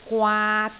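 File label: "gwaat3", Cantonese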